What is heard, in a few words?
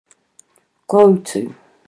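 "koutu", Māori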